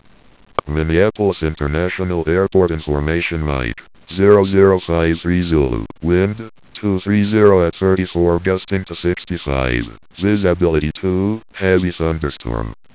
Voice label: atis